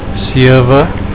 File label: "sewe", Afrikaans